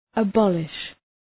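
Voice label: "abolish", English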